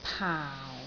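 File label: "paau4", Cantonese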